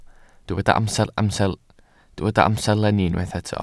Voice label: "amserlenni", Welsh